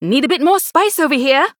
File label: Female Human Play